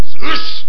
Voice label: Warrior Voice